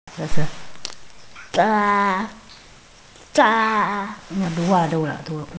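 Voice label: "la4", Mandarin Chinese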